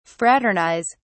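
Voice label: "fraternise", English, British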